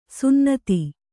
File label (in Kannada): "ಸುನ್ನತಿ", Kannada